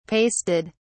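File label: "pasted", British English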